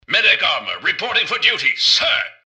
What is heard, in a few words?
generic robot suit start; npc; mrgutsy